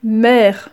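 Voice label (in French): "mère", French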